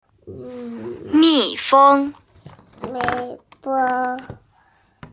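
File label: "mi feng", Mandarin Chinese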